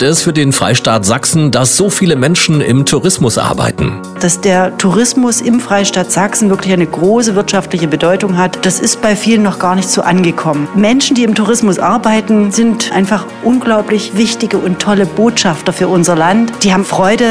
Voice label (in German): Infomercial Tourismushelden; LTV